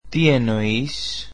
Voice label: greek What do you mean

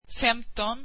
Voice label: swedish fifteen

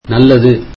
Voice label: tamil Good luck

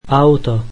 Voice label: slovak car

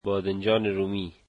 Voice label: "tomatoes" Dari

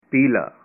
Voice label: "yellow", punjabi

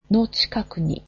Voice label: near, japanese

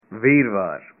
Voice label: "Thursday", punjabi

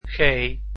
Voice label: "g", dutch alphabet

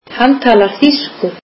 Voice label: icelandic he speaks German

